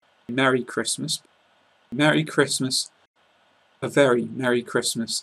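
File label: "Merry Christmas", British English pronunciation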